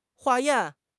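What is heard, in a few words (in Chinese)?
"化验", Mandarin Chinese; HSK6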